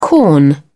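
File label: "corn", British English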